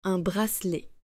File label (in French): "Un bracelet", French